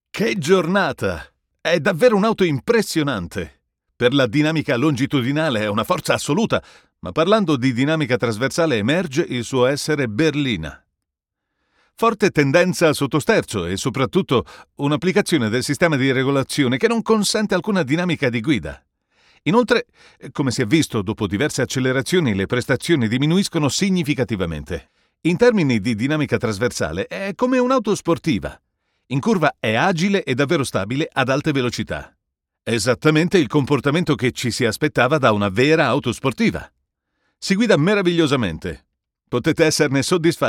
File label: ITA automotive